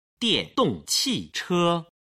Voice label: "diandong qiche", Chinese